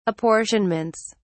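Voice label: "apportionments", British English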